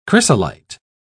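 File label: "chrysolite", British English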